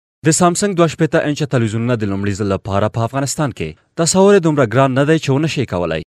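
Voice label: pashto male voice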